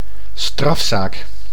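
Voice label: "strafzaak", Dutch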